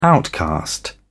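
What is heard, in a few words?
"outcast", British English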